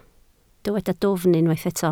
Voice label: "dwfn", Welsh